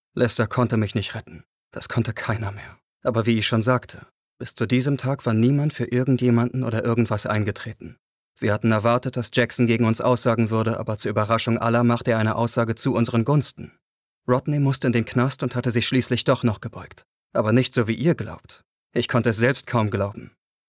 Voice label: Erzaehlung

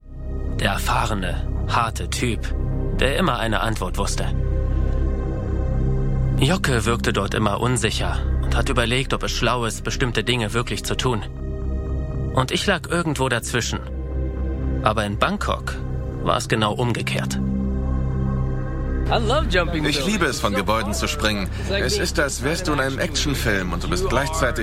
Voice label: doku; redbull

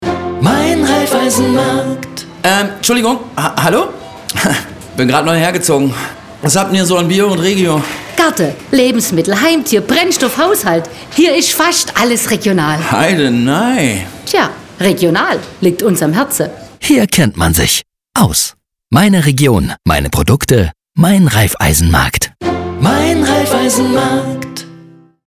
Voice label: Spot HIPSTER; MEIN RAIFFEISENMARKT

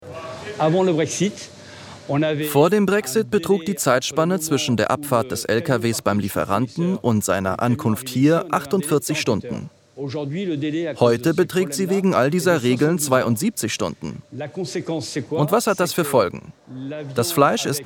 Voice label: Voiceover Reportage Grosshaendler fachkundig; ARTE; LOFT VOICES

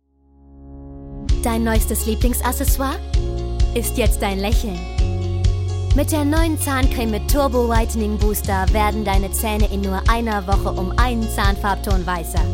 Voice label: werbung zahnpasta; LOFT VOICES

